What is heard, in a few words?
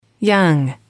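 "young", English, female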